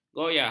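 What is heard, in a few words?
"goyah", Malay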